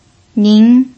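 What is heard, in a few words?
"nin", second tone, Mandarin Chinese pinyin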